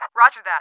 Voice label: English Roger